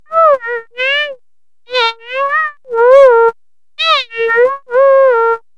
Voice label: mumble